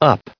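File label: "up", US English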